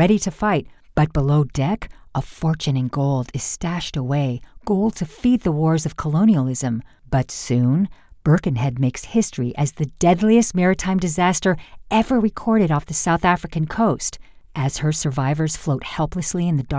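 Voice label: English US, narration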